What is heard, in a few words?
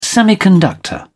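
"semiconductor", English, British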